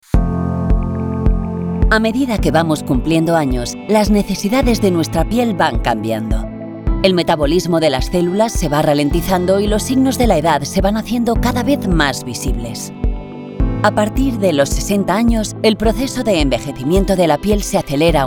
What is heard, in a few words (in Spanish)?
Corporativo CAST